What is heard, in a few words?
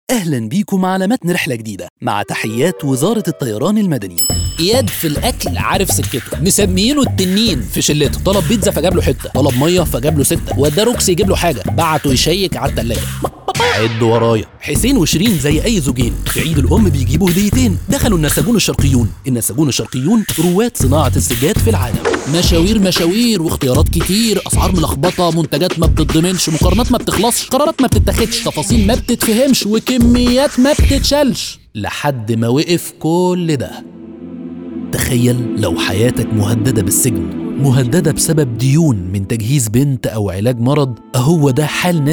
Egyptian Commercial; Demoreel